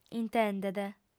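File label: "intendet", Sardinian, female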